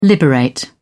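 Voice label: "liberate", British English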